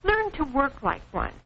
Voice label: learn to work like one, Quirky Female Typing Instructor; VOCALS and SPOKEN WORD